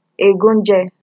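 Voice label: "egunje", Nigerian Pidgin, female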